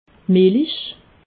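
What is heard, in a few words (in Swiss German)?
"milich", Alsatian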